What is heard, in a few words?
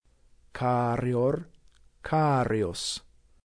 "carior", Latin